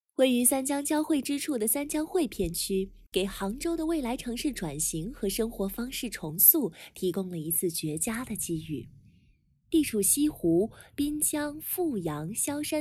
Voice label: 房地产宣传片配音

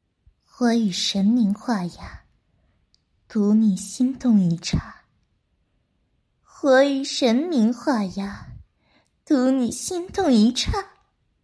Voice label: 中文

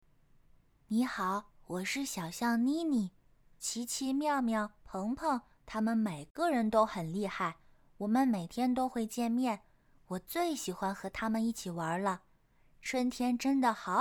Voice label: 儿童角色女童

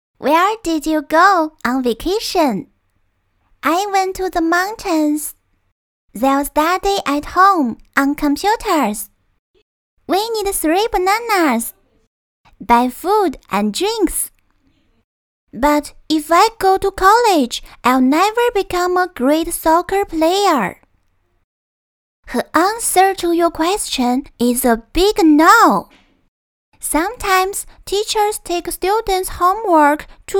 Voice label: 女童英

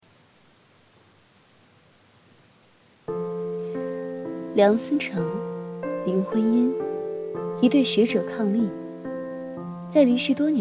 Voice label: 纪录片

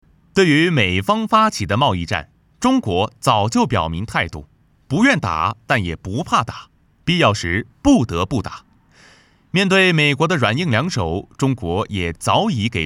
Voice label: 新闻; 谈大门敞开

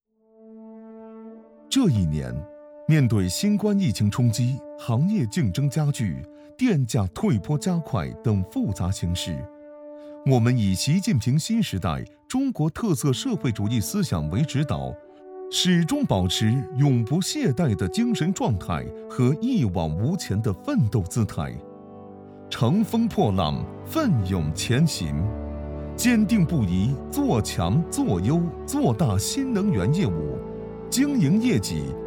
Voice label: 大气专题新能源

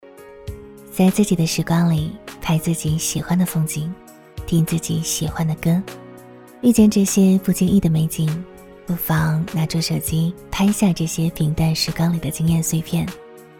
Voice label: 走心旁白; 在自己的时光里

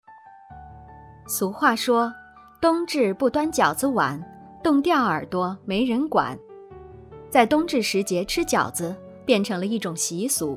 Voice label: 新闻宣传片; 冬至饺子

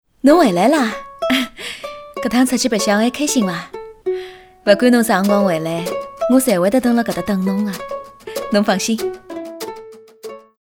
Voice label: 御姐（沪语）

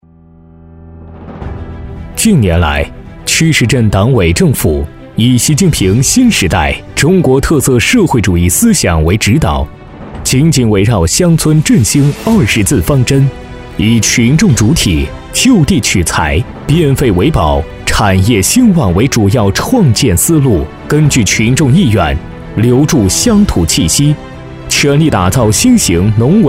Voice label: 大气绿色专题

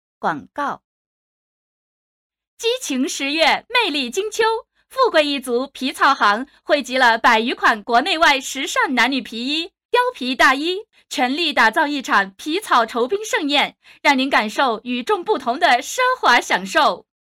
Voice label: 皮草行促销主播

